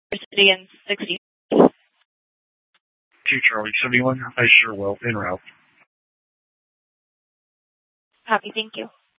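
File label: Mesa Police Department Superstition Dispatch, scanner; Mesa PD; 4 Superstition Dispatch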